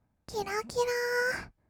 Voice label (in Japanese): "きらきらー", kawaii voice; ASMR; 色々なワンショット; 1200 kawaii voice!!